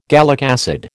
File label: "gallic acid", American